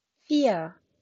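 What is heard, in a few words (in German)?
"vier", German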